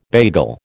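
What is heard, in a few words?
"bagel", American English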